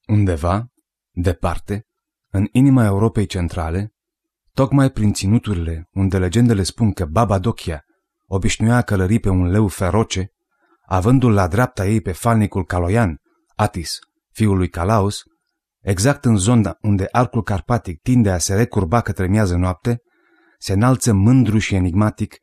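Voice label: Romanian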